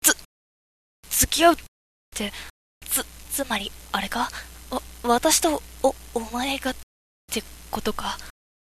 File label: girl